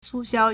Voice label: "cu4 xiao1", Chinese